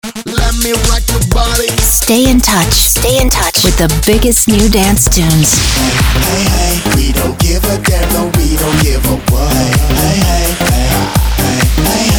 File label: commercial